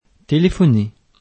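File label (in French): "téléphoner", French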